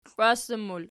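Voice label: "klwassan" plural, Archi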